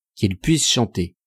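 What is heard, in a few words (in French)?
quils puissent chanter, French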